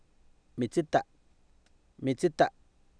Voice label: mitsita, Oapan, male; Oapan minimal pairs 2000